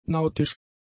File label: "nautisch", German; adjectives